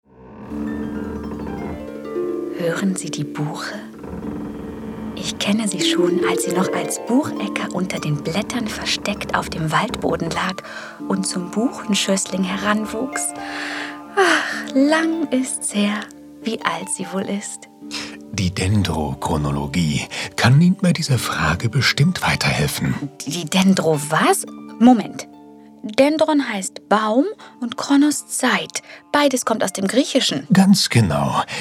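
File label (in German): audioguide hörspiel waldfee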